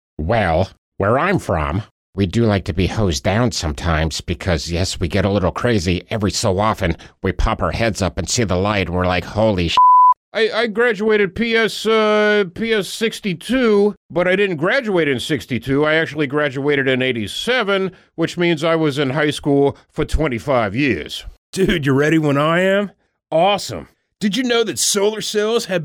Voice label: Character